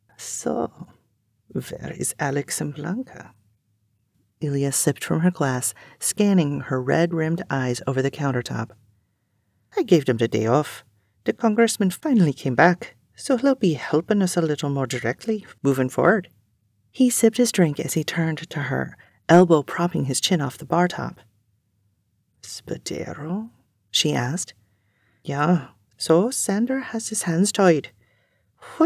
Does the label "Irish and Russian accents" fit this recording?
Yes